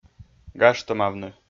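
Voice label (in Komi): "гажтӧмавны", Komi, male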